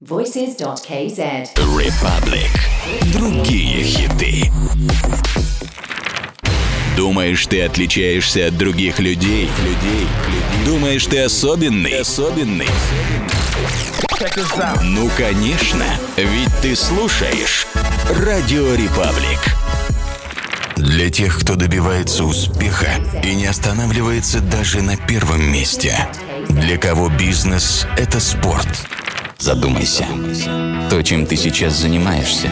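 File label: Russian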